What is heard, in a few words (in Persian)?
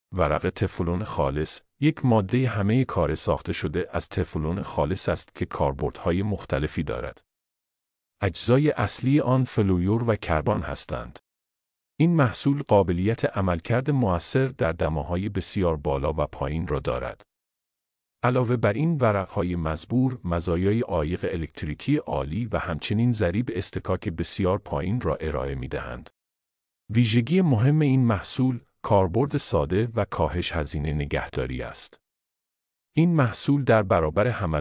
پادکست; ورق تفلون خالص